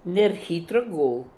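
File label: "Nerhitrogool", pronunciations; Fourth Way; Beelzebub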